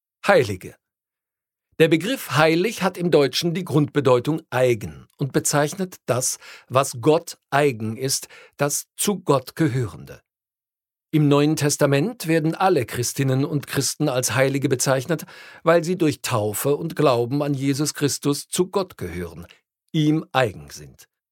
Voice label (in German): Erklaer Audio; Der Heilige Patrick und die Christianisierung Irlands